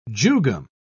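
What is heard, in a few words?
"jugum", British English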